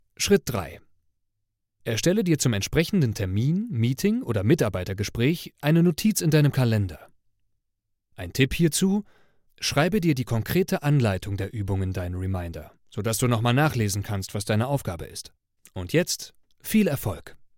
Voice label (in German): ubung fur mitarbeiter coaching